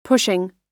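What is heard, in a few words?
"pushing", American English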